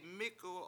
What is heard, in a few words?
mIk71w to defecate, Washo